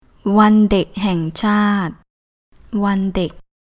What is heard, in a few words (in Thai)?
"Wun Dèk", Thai